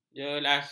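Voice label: "jelas", Malay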